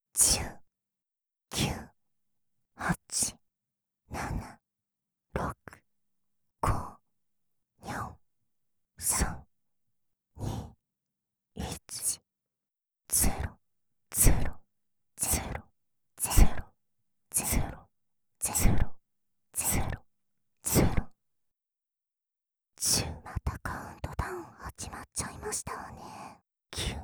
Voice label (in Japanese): カウントダウン 囁き; メスイキループ